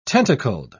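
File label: "tentacled", British English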